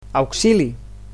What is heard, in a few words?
"help", Catalan